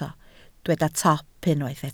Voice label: "top", Welsh